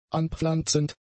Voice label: "anpflanzend", German; adjectives

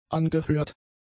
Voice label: "angehört", German; adjectives

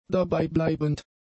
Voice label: "dabeibleibend", German; adjectives

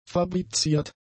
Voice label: "fabriziert", German; adjectives

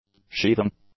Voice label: "sheathing", English; nouns

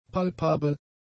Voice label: "palpabel", German; adjectives

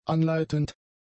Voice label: "anleitend", German; adjectives

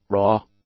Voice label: "raw", English; adjectives

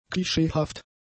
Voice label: "klischeehaft", German; adjectives

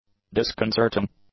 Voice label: "disconcerting", English; adjectives

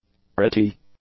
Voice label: "pretty", english; adjectives

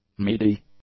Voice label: "midi", English

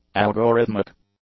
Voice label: "algorithmic", English; adjectives

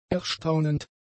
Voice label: "erstaunend", German; adjectives

